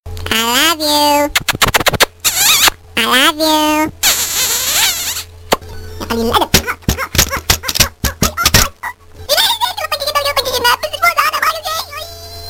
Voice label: I Love U funny